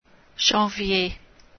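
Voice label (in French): "janvier", French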